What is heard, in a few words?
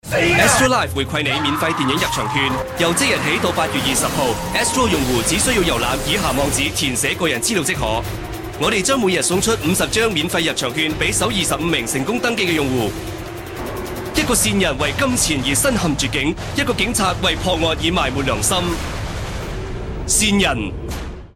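Cantonese; ASTRO LIFE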